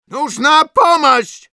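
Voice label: help me, Russian; Нужна помощь!; Голосовые команды медика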